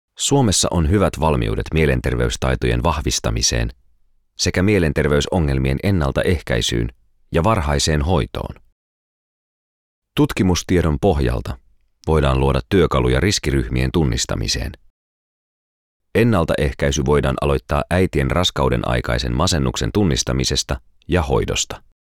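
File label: finnish male narration; voice talents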